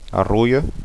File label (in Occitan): "arrolha", gasconha